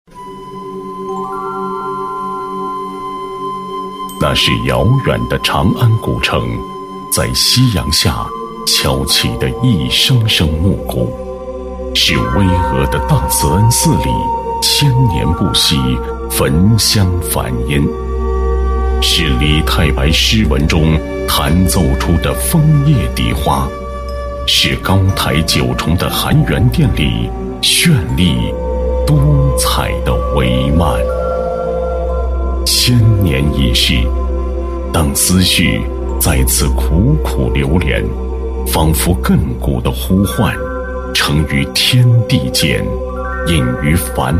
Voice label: 男 专题 历史神秘; 央视大气专题; 大唐盛风

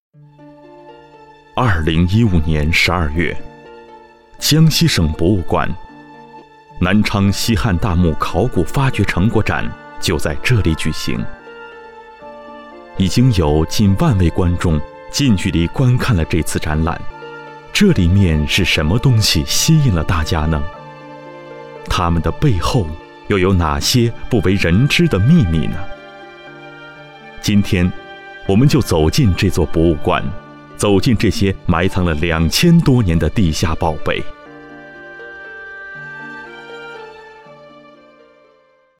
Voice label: 男 栏目片花 大气神秘

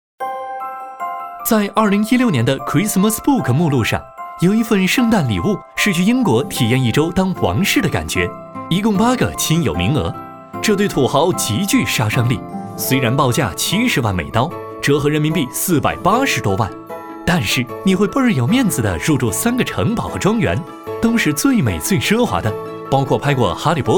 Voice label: 男 广告 年轻活力时尚 圣诞礼物; 飞碟说

